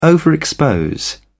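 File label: "overexpose", British English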